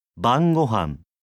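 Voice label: "bangohan", Japanese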